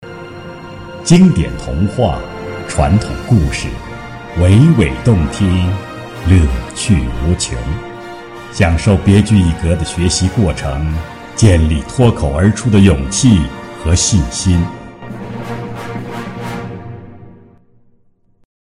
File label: Chinese Mandarin; foreign languages